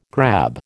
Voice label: "grab", American